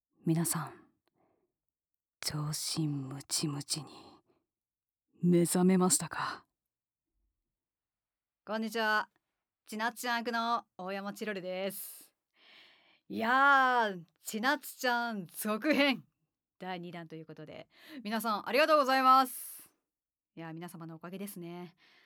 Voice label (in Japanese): フリートーク, ASMR